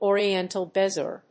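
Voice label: "Oriental Bezoar", English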